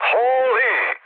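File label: Serbian trick mood med; speech; War Thunder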